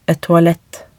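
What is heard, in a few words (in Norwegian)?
"et toalett", Norwegian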